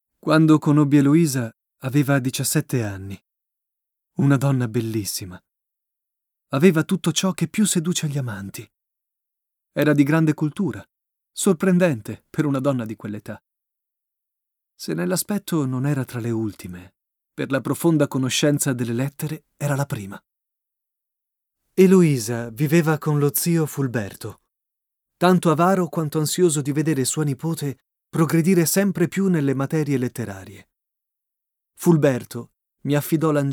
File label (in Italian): Narrazione It, male, Italian; narration